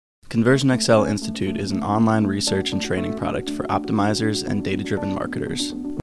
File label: Music delay